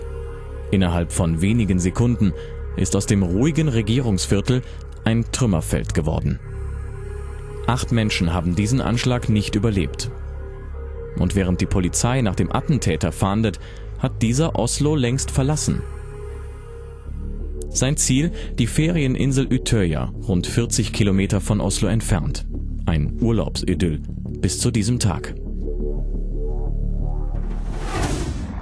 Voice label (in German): Doku; Breivik; LOFT VOICES